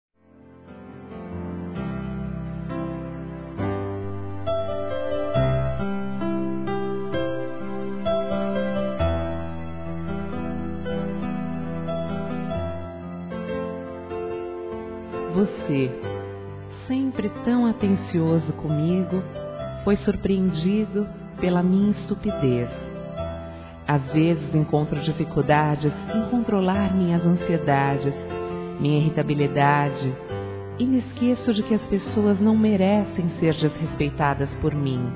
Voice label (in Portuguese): POR NÃO TE LO TRATADO BEM F, Telemensagens Desculpas fem; Telemensagens 2017